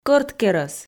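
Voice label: "кӧрткерӧс", Komi, female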